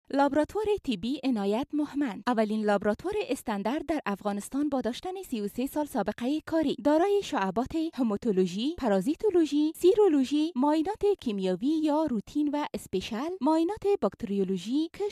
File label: Dari, Female